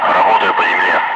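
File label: Russian speech, Ka-50 Wingman; Messages engaging movers; DCS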